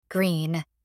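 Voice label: "green", voice; matching game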